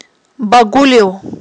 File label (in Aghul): "багулив", Aghul